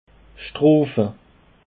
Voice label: "schtrofe", Alsace

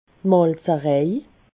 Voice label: "malzerej", alsace

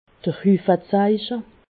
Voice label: "huffezeiche", alsace

